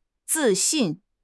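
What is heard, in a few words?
"zi4 xin4", Chinese; HSK4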